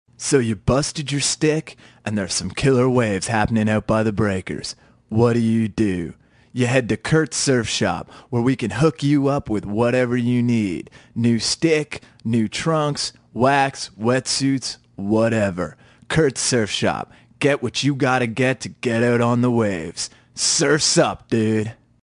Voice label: surfer dude commercial; Kurt's Surf Shop